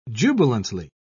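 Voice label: "jubilantly", British English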